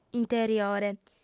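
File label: "interiore", female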